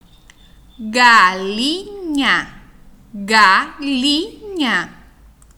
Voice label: voz galinha

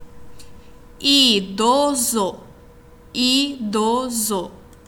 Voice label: voz idoso

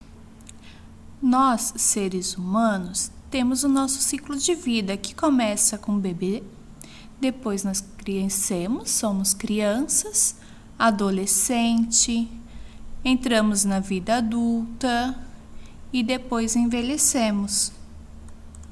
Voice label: voz ciclo vida homem

